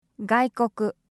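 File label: "gaikoku", Japanese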